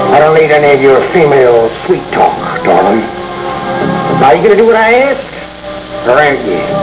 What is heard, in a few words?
sweet talk